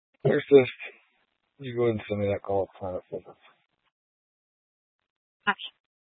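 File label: Police Dispatch, Scanner audio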